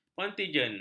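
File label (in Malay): "kontinjen", Malay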